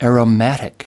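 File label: "aromatic", US English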